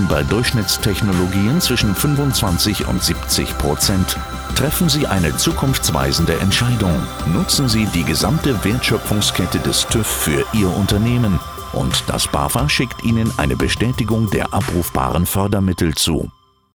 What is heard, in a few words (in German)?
videopraesenation ENERGIEMANAGEMENT; TUEV